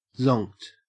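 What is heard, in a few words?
"zonked", Australian English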